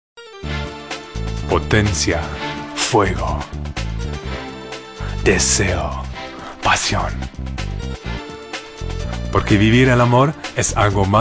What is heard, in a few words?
Voice Over; virilium